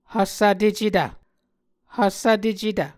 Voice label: "hvsvtecetv", Muscogee